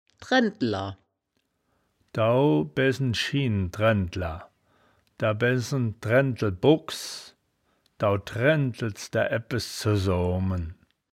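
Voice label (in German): "draendtler", wittlicher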